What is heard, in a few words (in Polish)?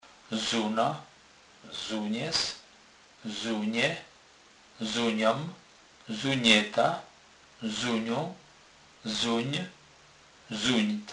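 "zunac", Kurpie dialect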